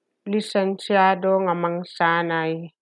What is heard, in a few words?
Ilocano